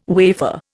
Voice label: "wafer", Indian

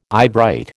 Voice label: "eyebright", American

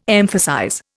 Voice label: "emphasize", Indian

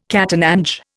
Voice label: "catananche", Indian

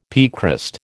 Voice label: "piecrust", American

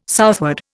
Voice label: "southward", Indian

